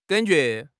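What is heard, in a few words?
"gan3 jue2", Mandarin Chinese; HSK4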